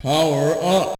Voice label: Voice Power Up!; Altered Beast; Sega; Megadrive-Genesis